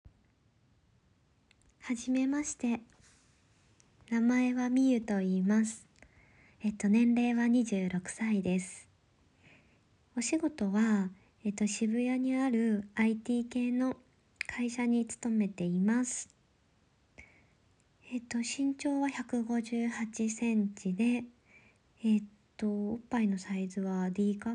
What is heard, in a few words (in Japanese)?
自己紹介と挨拶, ASMR; おっとり系OLお姉さん